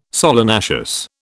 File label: "solanaceous", British